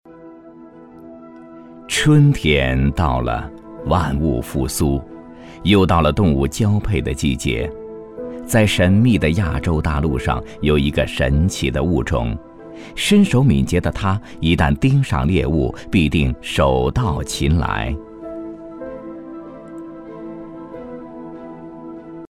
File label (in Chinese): 纪录片 自然科教; 动物世界